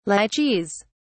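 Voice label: "lychees", British English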